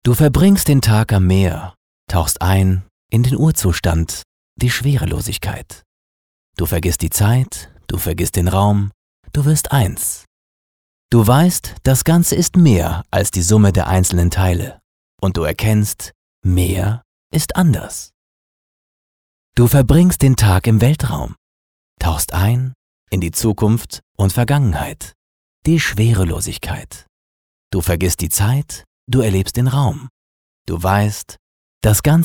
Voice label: Schauspiel Lebendig

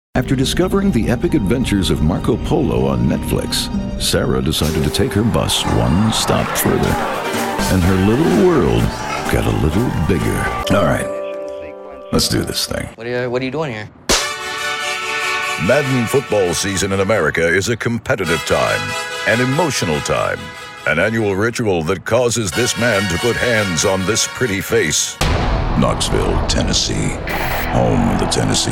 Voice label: Eng US Variabel; Fremdtake

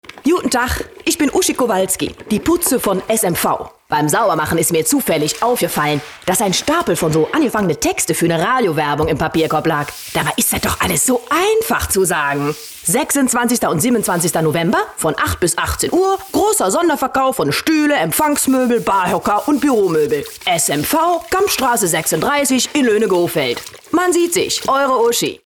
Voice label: Dialekt Ruhrpott

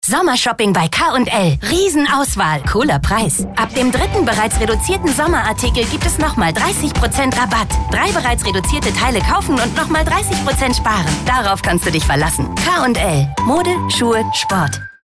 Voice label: Fremdtake Freundlich

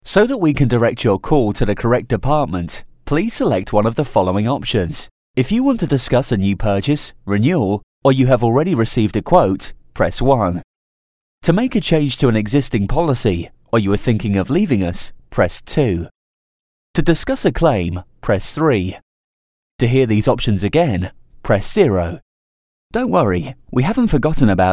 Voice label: Kommentar Neutral

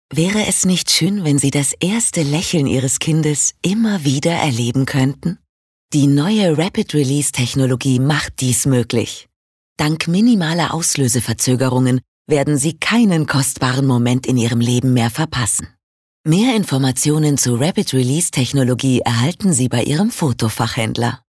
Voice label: Rapid Release Freundlich

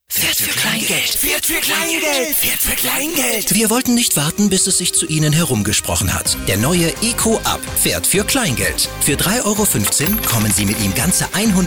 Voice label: Werbung Freundlich

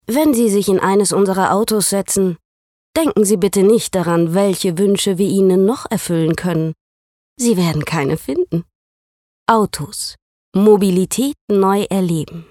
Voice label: Werbung Freundlich

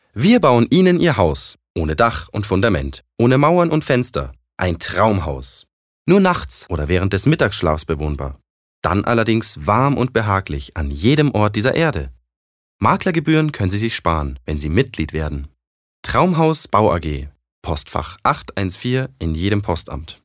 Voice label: Werbung, Freundlich

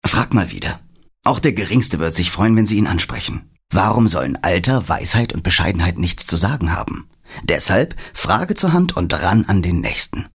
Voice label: Werbung, Dynamisch

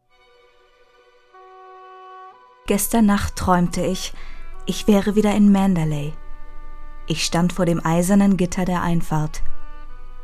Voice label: Sanft; Fremdtake

